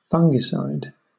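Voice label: "fungicide", British English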